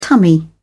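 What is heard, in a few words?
"tummy", British English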